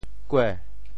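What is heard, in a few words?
"kue2", Teochew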